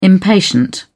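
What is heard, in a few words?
"impatient", British English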